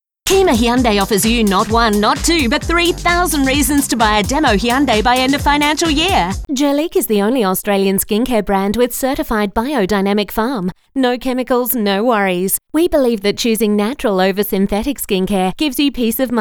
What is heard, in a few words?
Commercial Upbeat